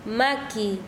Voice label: Chanka, quechua; mano